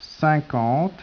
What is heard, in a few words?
speech, French